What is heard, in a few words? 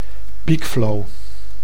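"peak flow", Dutch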